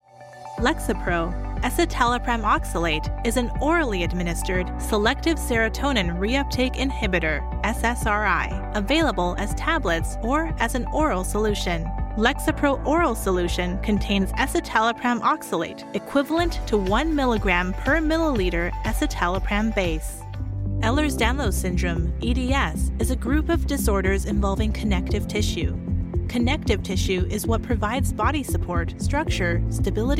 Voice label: english american medical narration